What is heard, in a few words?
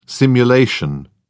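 "simulation", British English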